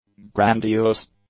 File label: "grandiose", english; adjectives